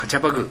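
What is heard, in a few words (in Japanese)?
"kachabagu", 南山形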